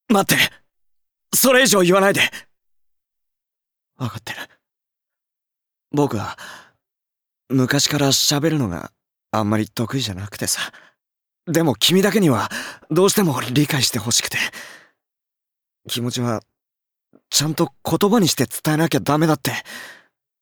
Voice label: japanese animation

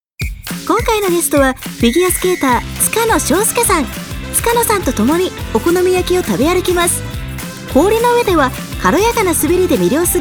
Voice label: japanese tv shows

